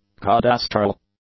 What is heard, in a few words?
"cadastral", English; adjectives